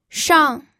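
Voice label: "shang4", Mandarin Chinese; pinyin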